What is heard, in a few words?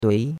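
"dui2", Chinese; Cours-de-Chinois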